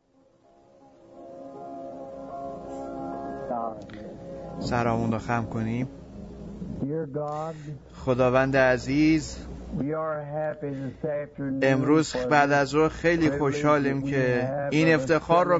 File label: Farsi Voice Over; A Man Running From the Presence of the Lord